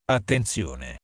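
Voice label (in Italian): "attenzione", italiano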